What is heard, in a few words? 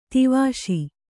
"ಧೀವಶ", kannada